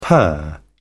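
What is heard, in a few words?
"per", British English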